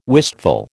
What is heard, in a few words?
"wistful", American